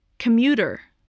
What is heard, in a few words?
"commuter", english